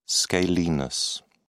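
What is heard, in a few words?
"scalenus", British English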